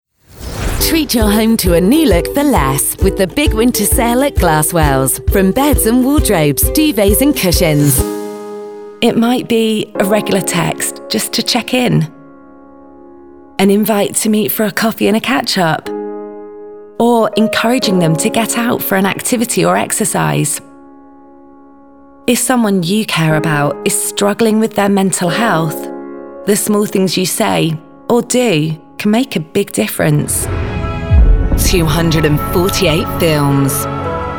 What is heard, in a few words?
british female voiceover commercial